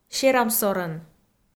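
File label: "серамсорӧн", Komi, female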